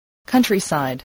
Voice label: "countryside", voice spelling